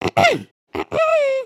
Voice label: Pick me, emotes